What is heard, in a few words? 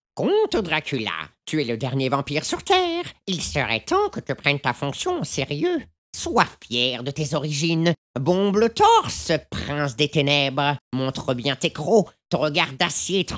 French, Male